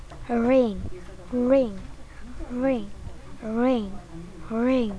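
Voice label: "ring", spoken word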